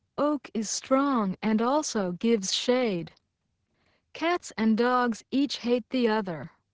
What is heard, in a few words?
opus codec, female